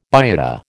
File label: "biota", American